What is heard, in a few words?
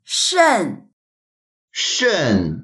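"shen4", Mandarin Chinese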